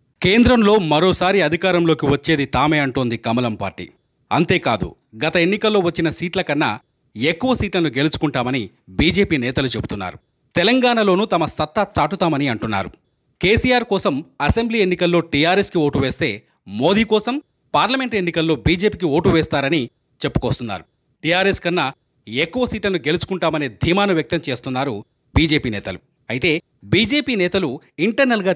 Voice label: Male VO Sample Telugu

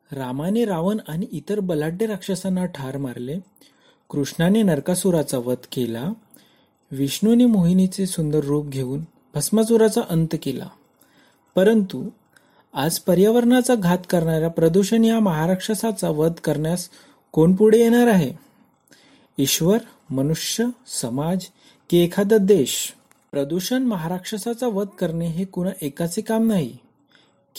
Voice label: Marathi VO

